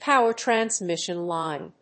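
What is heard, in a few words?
"power-transmission line", English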